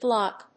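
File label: "blop", English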